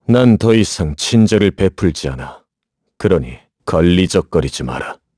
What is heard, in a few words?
vox, kr; Clause ice; kingsraid